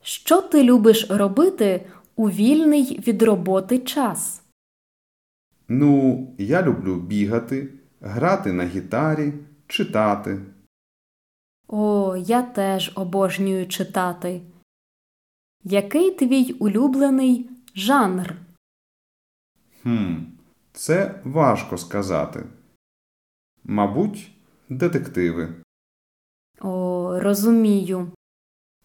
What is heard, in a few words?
Dialogue Slow